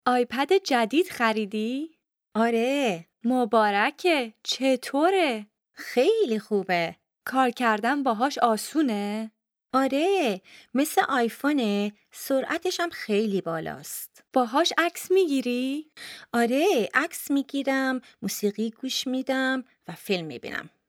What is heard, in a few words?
Dialogue lesson Farsi